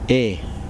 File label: fire, Kosraean